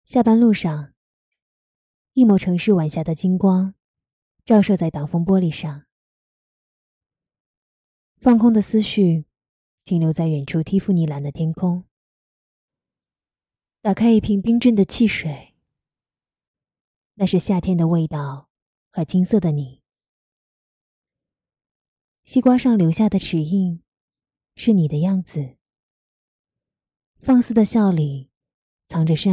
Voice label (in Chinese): 下班万岁御姐